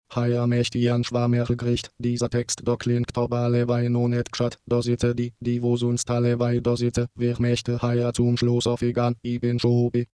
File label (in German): lungauer dialekt